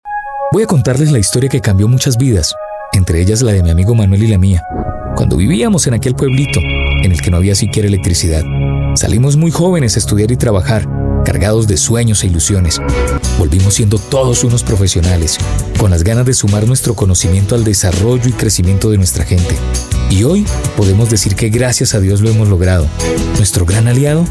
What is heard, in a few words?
spanish voice over male narrator